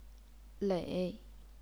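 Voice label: "lei", Chinese, third tone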